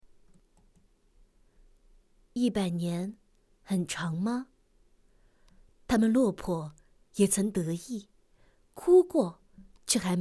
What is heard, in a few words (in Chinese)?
女, 纪录片, 国语配音